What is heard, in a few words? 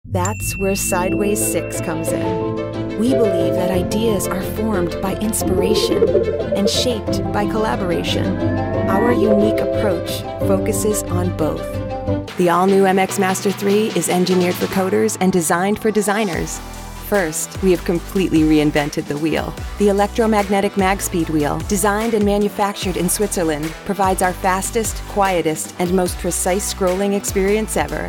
English American corporate videos